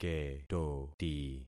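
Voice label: "ketoti", concatenated syllables; Affixing experiment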